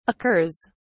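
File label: "occurs", British English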